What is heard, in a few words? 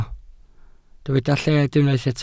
"lled", welsh